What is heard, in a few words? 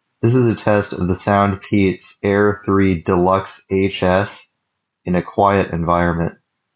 quiet